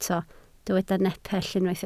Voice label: "nepell", Welsh